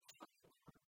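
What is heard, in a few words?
"сёрнитӧма", Komi, female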